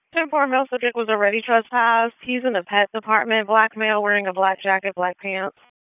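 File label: Police Northeast District Dispatch, Raleigh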